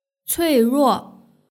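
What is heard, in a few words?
"cuiruo" Chinese word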